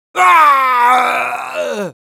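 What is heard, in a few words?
pain critical death, es; Sniper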